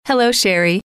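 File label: "hello", female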